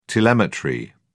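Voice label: "telemetry", British English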